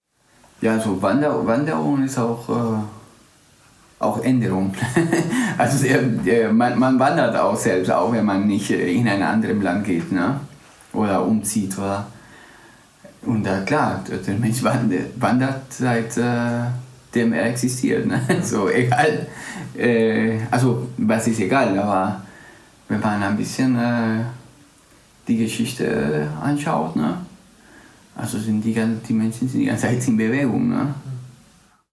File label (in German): spanisch guatemala